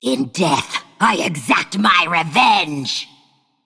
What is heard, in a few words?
Female Undead